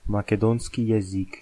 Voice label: macedonian

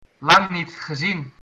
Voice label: long time no see, dutch

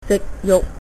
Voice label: bon appetit, cantonese

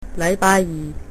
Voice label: tuesday, cantonese

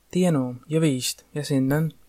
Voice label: fine livonian

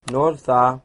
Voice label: good night, Cornish

